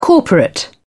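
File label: "corporate", British English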